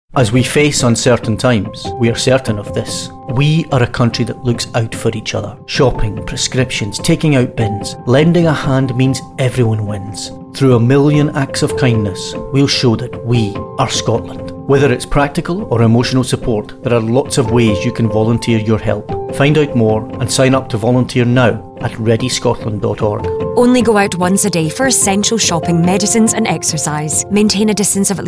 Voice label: radio; scot gov ready scotland